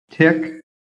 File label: "tick", phonetic